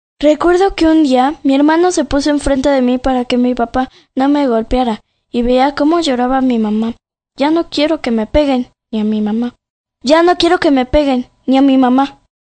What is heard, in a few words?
locutora infantil mexican girl voiceover mejicana; voz de niña mexicana; Escena Digital